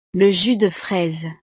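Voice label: "le jus de fraise", French; desayuno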